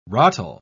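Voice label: "rotl", British English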